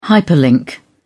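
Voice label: "hyperlink", British English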